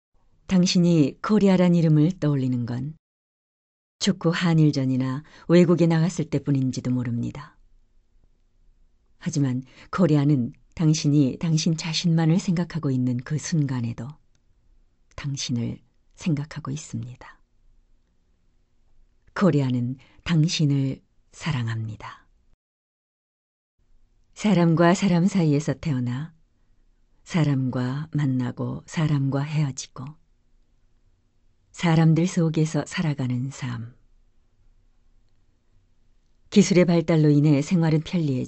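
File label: Korean, female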